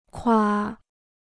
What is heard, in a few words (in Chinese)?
"夸", Chinese